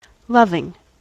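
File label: "loving", US English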